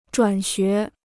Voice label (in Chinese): "转学", Chinese